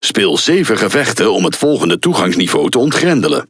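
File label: NL Speech Access Level Unlock, Dutch; World Of Warships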